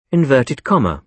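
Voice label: "inverted comma", British English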